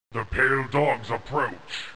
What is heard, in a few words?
Orc; approach